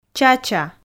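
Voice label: "чача", Komi, female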